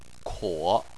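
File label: "kuo" third tone, Chinese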